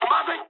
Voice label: jp trick, speech jp

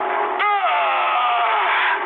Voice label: damaged pilot, speech ru

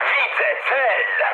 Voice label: enemy detected mood high, speech pol; War Thunder

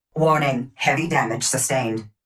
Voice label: warning heavy damage sustained, Tone Voice; Titanfall 2

